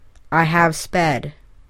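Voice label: "I have sped", English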